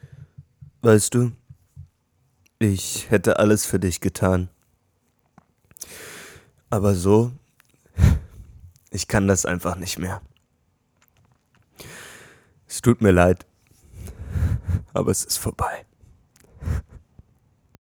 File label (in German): Traurig